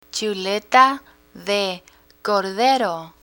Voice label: "chuleta de cordero", Spanish

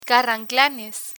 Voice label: "carranclanes", Spanish

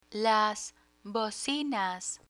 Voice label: "bocinas", Spanish; spanish for kids

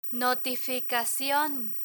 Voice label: "notificacion", Spanish; legal vocabulary

